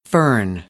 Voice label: "fern", American English; ɝː - ɜː